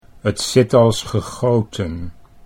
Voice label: 't zit als gegoten, Dutch

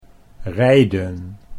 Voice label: "rijden", Dutch

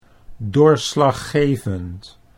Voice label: "doorslaggevend", Dutch

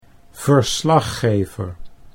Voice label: "verslaggever", Dutch

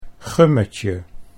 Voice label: "gummetje", Dutch

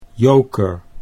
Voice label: "joker", Dutch

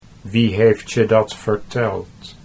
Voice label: wie heeft je dat verteld, Dutch

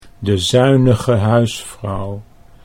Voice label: de zuinige huisvrouw, Dutch

